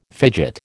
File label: "fidget", American